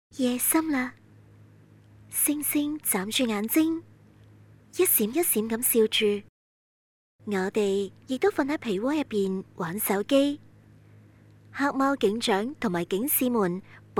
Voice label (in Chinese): 女 角色, 粤; 黑猫警长